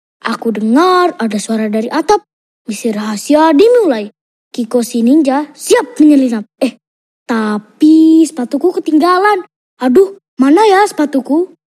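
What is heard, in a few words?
child CHARACTER; INDONESIA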